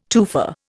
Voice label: "tufa", Indian